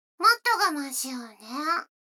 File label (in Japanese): 女の子 台詞, ASMR